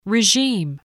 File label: "regime", spelling voice